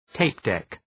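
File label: "tape deck", English; (Ουσιαστικό)● μαγνητόφωνο εγγραφής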